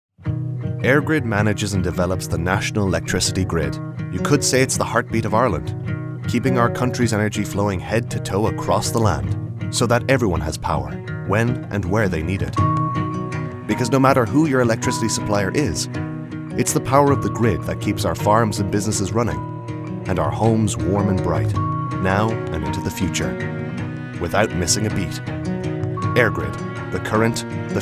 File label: Commercial Voiceover